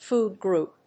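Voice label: "Food group", English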